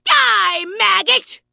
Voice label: Vrykul Female Magic User Pissed